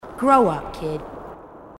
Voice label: marine tough